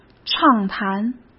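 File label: "畅谈", Mandarin Chinese; chinese flashcards; hsk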